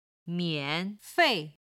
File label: "mian3 fei4", Chinese